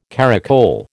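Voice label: "caracole", American English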